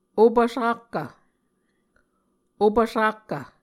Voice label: "opv sakkv", Muscogee; Mvskoke Words with Repeated Consonants; Martin, Jack B., and Margaret McKane Mauldin. 2023. A Dictionary of Creek/Muskogee

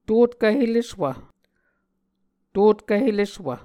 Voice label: "totkv-heleswv", muscogee

